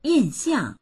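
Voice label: "yin4xiang4", Mandarin Chinese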